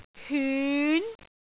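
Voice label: "hyun2", Cantonese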